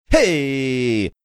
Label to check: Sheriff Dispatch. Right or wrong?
Wrong